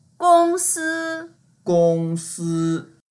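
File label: "gong1si1", Mandarin Chinese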